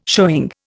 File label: "showing", Indian